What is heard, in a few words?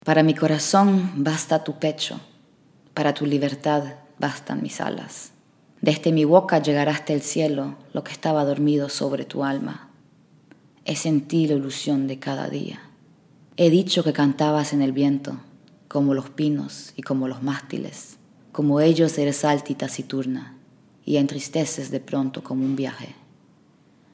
SPANISH VOICE; Spanisch